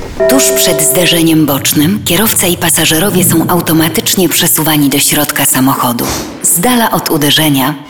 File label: Female VO Corporate